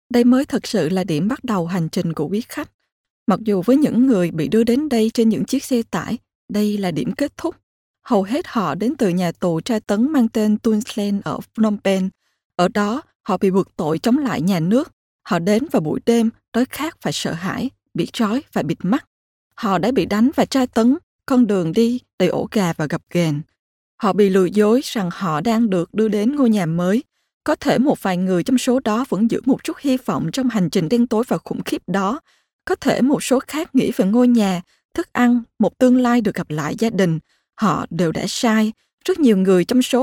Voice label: Nachvertonung Film Sprecherin Vietnamesisch

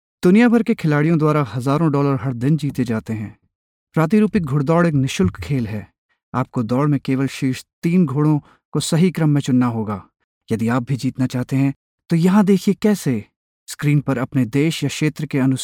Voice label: Nachvertonung Film Sprecher Hindi

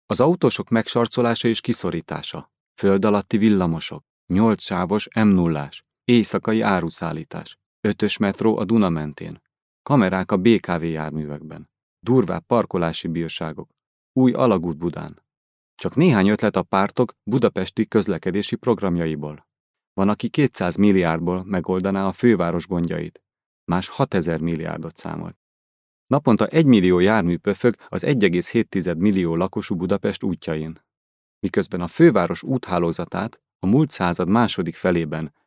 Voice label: Sprecher Ungarisch; Nachvertonung Film

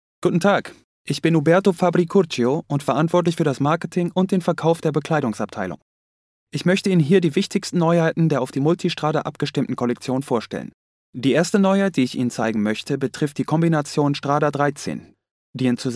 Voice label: Nachvertonung Film Sprecher Deutsch

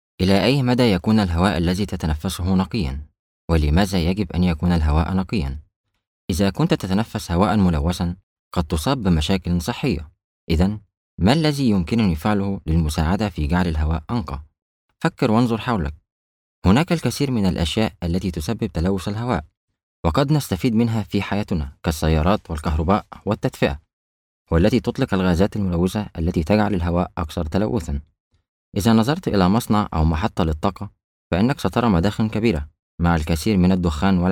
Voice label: Nachvertonung Film Sprecher Arabisch

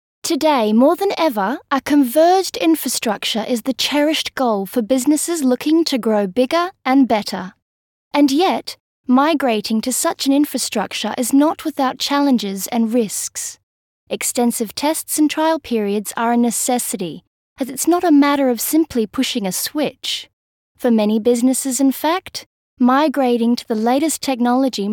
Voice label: Nachvertonung Film Sprecherin Englisch Australien

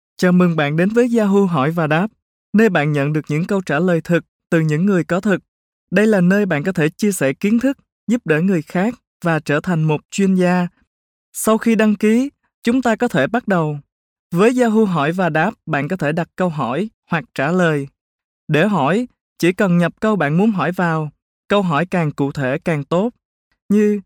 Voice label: Nachvertonung Film Sprecher Vietnamesisch

